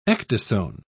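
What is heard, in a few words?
"ecdysone", British English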